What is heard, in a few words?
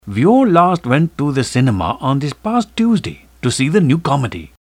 indian